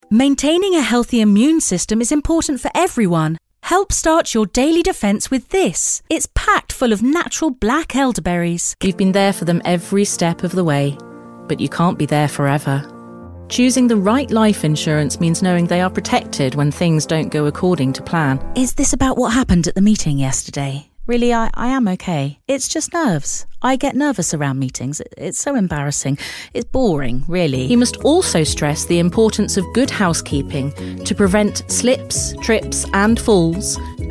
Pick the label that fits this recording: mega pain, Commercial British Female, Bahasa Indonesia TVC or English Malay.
Commercial British Female